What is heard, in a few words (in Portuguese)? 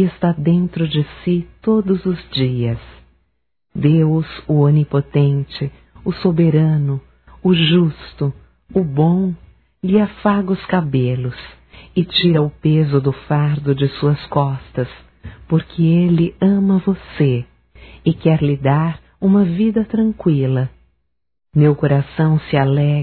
Aniversário Evangélico Feminino; Aniversarios; Telemensagens; Telemensagens 2017